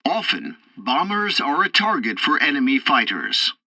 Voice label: tutorial bomber turrets, english; War Thunder